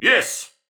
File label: Emote Yes